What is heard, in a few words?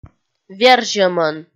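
"верзьӧмӧн", Komi, female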